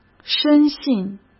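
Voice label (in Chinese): "深信", Mandarin Chinese; chinese flashcards; hsk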